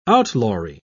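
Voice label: "outlawry", British English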